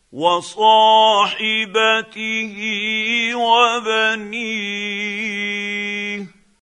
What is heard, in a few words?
quran, Hussary, tafseer; Abasa